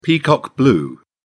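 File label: "peacock blue", British English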